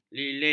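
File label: "lilin", Malay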